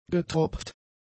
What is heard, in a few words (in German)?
"getropft", german; adjectives